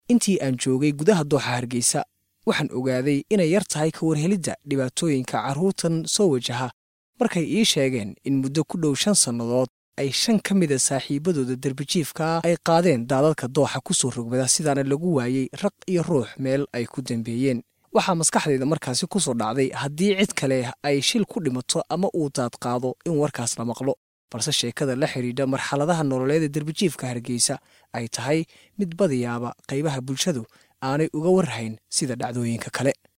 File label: male somali voice over talent narrative